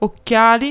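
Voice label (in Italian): "occhiali", Italian; ktuberling; games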